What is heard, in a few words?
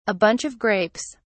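"a bunch of grapes", British English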